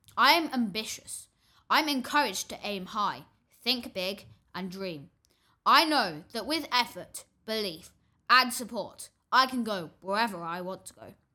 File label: Year 6 Boy I am AMBITIOUS